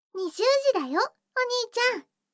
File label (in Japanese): 時報20時; 全肯定ロリママのあまあまでえっちな添い寝; いもおかASMR